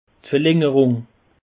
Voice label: "verlangerung", alsace; peche

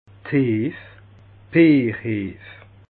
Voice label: "bierheef", alsace

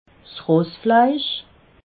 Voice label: "rossfleisch", alsace

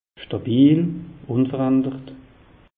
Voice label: "stabil", alsace; meteo

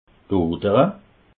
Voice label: "odere", alsace

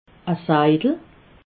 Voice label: "seidel", alsace

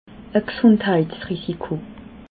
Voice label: "gsundheitsrisiko", alsace

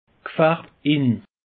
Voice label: "gfarbtin", alsace; peche

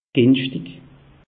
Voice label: "ginschtig", alsace; meteo

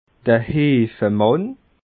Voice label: "heefemann", alsace

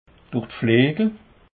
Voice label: "durdflegel", alsace

